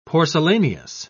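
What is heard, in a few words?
"porcelaneous", British English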